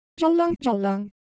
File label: Korean, Ideo Phones